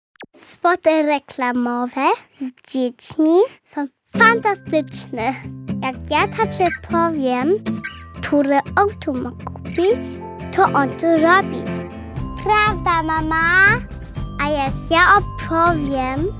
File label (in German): Werbung POL